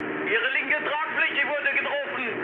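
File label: German left wing mood high, speech; War Thunder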